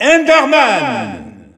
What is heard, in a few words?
French Announcer; SSBU